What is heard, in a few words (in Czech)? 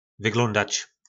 "Vyglóndač", nářečí Po našimu; Vypadat